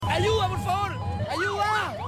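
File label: "ayuda", Spanish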